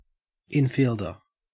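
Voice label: "infielder", Australian English; pronunciations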